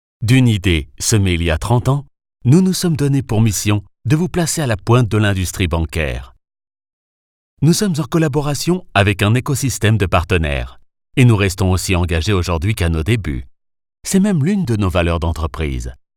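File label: corporate, female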